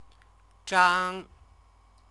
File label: "zhang", Chinese